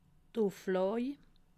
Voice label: "uflaj", alsace

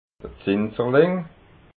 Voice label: "zinserling", alsace

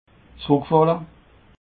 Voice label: "zuruck falle", alsace

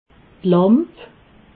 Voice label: "lamp", alsace

